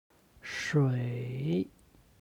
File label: "shui", Chinese; 水; HSK Level One